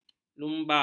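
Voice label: "lumba", Malay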